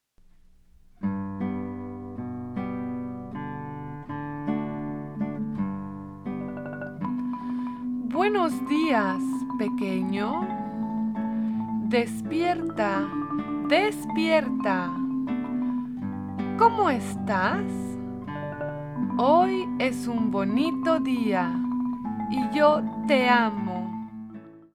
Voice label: Spanish